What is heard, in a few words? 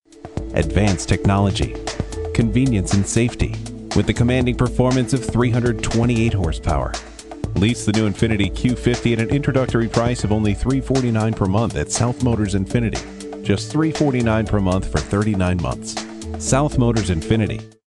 Television Commercial